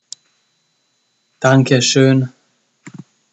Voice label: Thank you German